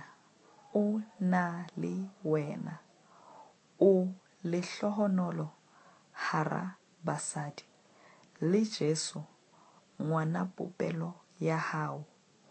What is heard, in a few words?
ave maria sesotho lento